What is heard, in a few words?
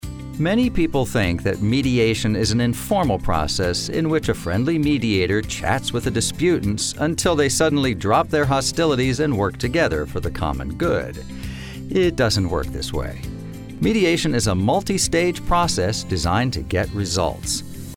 Narration Mediation